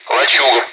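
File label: Speech, Russian, air traffic control; Callsign; Lachuga; DCS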